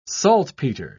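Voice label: "saltpeter", British English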